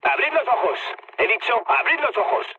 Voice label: player friendly fire, mood med, speech; War Thunder

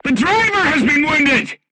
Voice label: voice message driver wounded, vietnamese

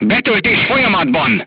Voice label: voice message reloading, crew tanks hungarian

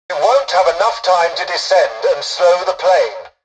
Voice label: tutorial dialogue, english; War Thunder

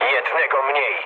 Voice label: player killed enemy mood low, speech pol; War Thunder

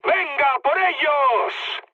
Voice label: enemy detected, mood med, speech; War Thunder

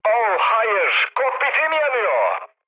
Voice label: on fire, mood med, speech tur; War Thunder

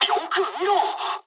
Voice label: player friendly fire mood med, speech jp

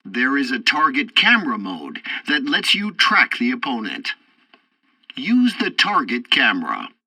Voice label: tutorial tank arcade target camera, english; War Thunder

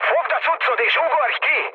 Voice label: bailout, speech hun; War Thunder

